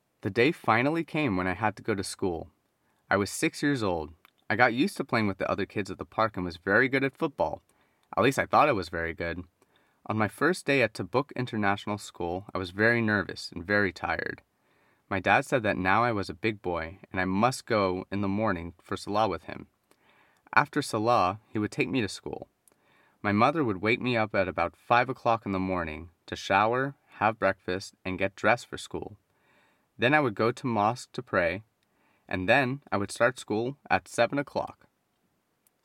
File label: Reading